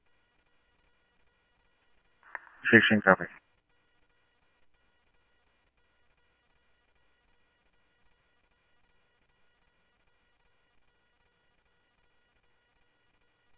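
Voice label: Mesa Simulcast Police Department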